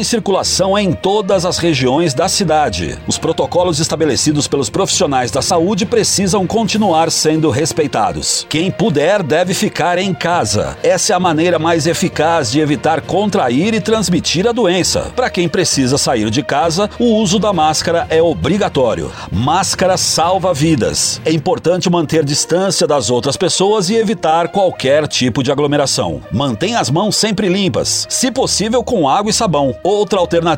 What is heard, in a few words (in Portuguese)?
spot alerta; coronavirus; Linha Alerta; Radio